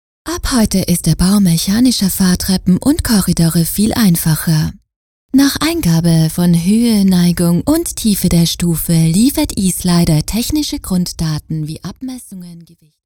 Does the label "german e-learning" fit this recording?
Yes